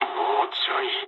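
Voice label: near waypoint mood med, speech japanese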